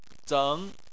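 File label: "zeng", Mandarin Chinese, second tone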